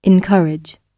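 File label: "encourage", British English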